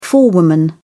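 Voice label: "forewoman", British English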